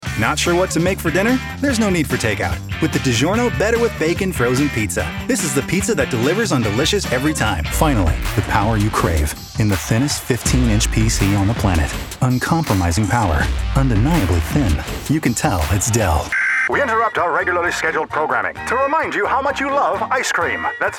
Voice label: Commercial, Male voices